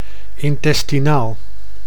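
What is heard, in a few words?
"intestinaal", Dutch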